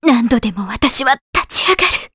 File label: Dead, Jp; Scarlet; kings raid